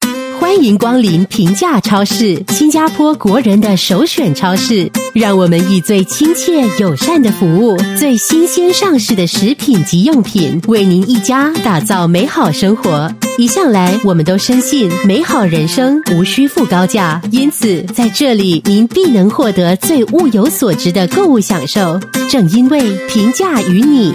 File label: Mandarin Commercial In store, Mandarin China Female; Fairprice